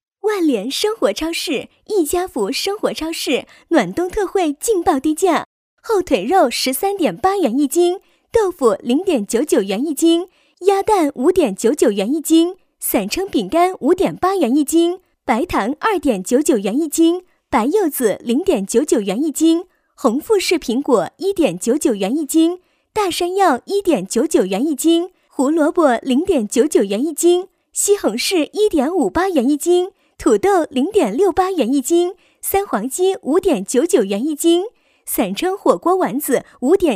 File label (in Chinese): 女 促销 普通话; 万联生活超市